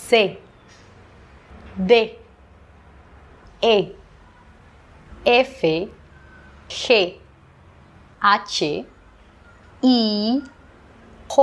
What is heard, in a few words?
Espagnol alphabet; Histoire Semaine